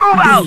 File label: radio voice male soldier move out